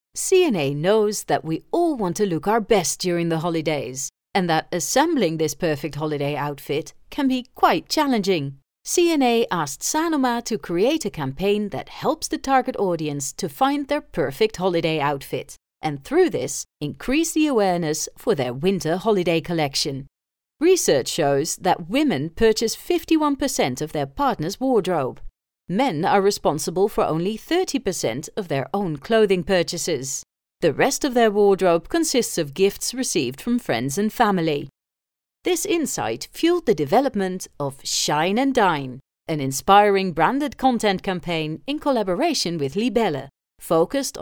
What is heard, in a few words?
english narration